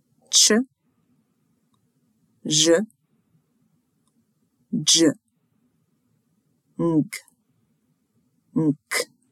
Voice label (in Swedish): Konsonantkombinationer, Jiddish